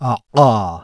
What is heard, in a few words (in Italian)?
Occlusiva epiglottale; IPA